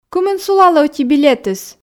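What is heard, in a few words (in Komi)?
кымын сулалӧ ӧти билетыс, Komi, female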